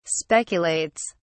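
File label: "speculates", English, British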